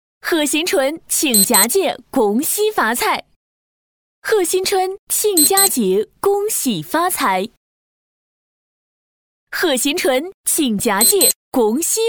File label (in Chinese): 河南方言 拜年